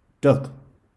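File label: "duck", English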